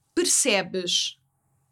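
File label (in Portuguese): "percebes", português europeu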